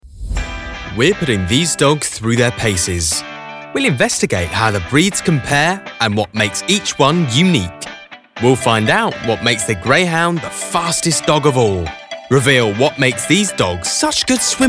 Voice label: Documentary